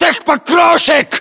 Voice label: landmark near tires, ru; localized english

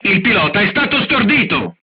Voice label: driver contused, crew tanks italian; War Thunder

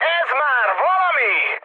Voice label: trick, mood high, speech hun; War Thunder

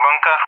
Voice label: "bunker", ENG; Allied Flight; DCS

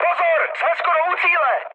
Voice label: near waypoint, speech cze, mood high; War Thunder

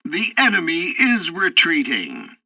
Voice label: tutorial bomber turrets, english; War Thunder

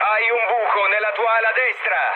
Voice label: right wing mood low, speech it; War Thunder

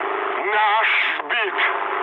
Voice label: aircraft destroyed, mood med, speech ru

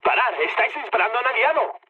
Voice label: player friendly fire, mood low, speech; War Thunder

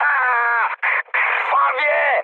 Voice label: damaged pilot mood med, speech pol; War Thunder

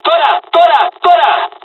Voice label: japanese first wave, dialogs spanish; War Thunder

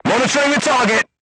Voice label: voice message target lock, vietnamese

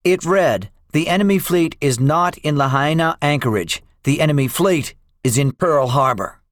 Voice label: debriefing, english; second wave; War Thunder

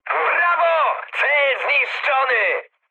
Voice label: bomb success mood med, speech pol; War Thunder

